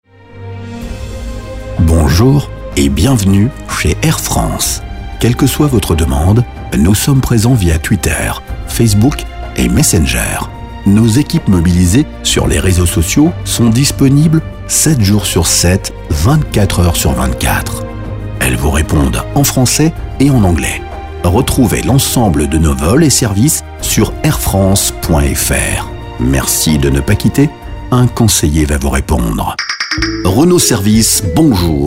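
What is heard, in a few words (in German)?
französischer Sprecher; Französischer Werbesprecher; french voice-over talent male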